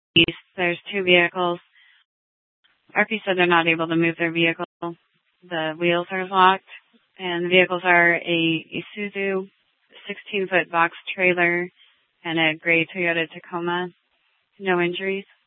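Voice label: Police Department Dispatch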